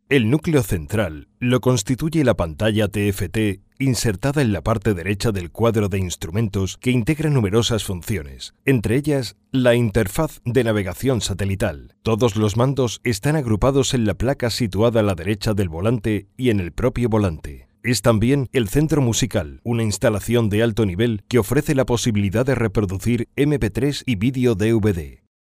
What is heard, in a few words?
locutor spanish voiceover